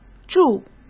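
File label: "住", Mandarin Chinese; chinese flashcards; hsk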